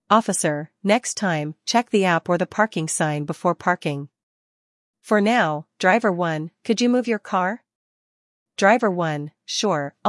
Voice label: Driver conversation; celpip practice test; listening practice test